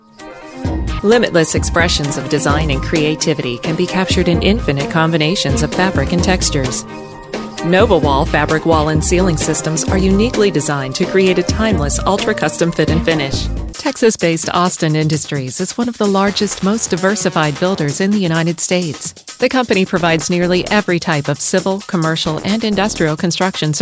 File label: NARRATION CORPORATE